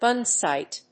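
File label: "gunsight", English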